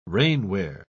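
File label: "rainwear", British English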